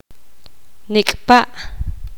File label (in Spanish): poqomam cuando